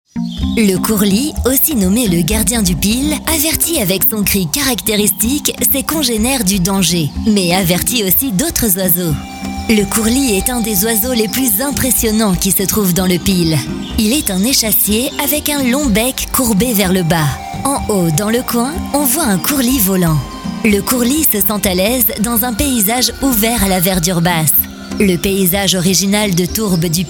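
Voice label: French, female, narration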